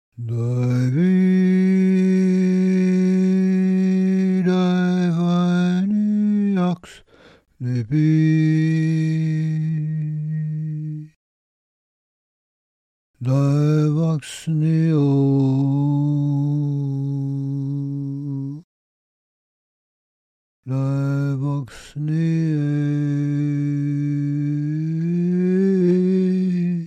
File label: Chant Talk